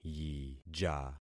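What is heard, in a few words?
"yija", concatenated syllables; Affixing experiment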